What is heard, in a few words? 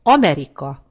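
"america", Hungarian; gcompris; geography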